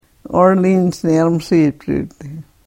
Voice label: karuk

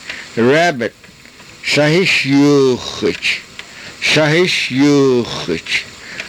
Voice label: "sahishyuuxach", karuk

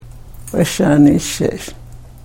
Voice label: karuk

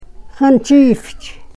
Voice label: "xanchiifich", karuk

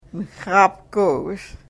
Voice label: karuk

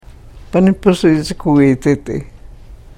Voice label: karuk

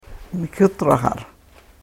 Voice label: "mikutrahara", karuk

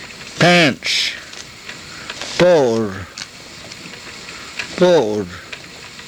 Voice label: "poor", karuk

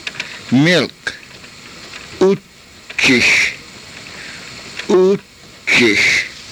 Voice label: "uchish", karuk

